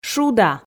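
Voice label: "шуда", Komi, female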